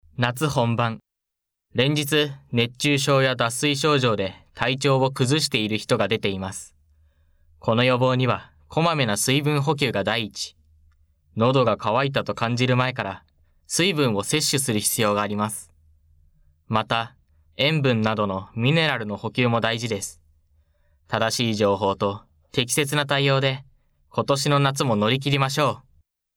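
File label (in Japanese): ナレ, 男性 ボイスサンプル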